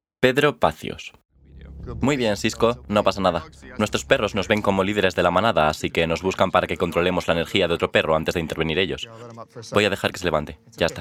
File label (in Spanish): Documental